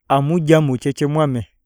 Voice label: eat, iyasa

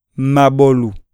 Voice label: neck, iyasa

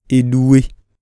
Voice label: hip, iyasa

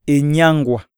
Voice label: lick, iyasa

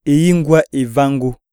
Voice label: slap, iyasa

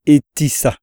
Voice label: trample, iyasa

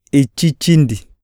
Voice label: heel, iyasa

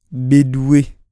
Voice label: hip, iyasa

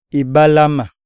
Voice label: bend over, iyasa

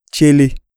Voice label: barren woman, iyasa

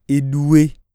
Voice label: hip, iyasa

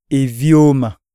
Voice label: breathe, iyasa

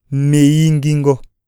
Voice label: throat, iyasa

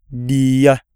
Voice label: seated, iyasa

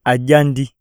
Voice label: eat, iyasa

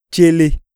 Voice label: barren woman, iyasa

